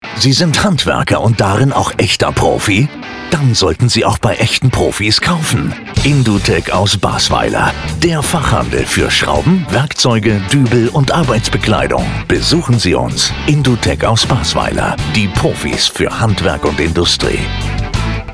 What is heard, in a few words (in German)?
Spot; Indutek